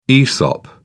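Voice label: "esop", British English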